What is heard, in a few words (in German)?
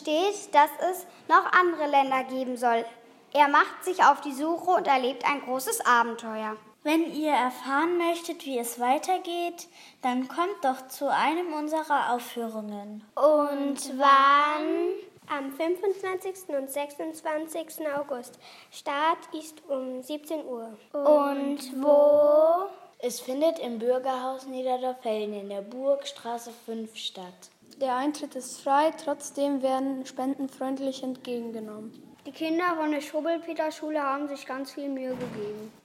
Rotasia Werbung